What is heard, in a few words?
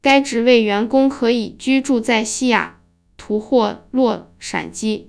synthesized; fast speech2; AISHELL3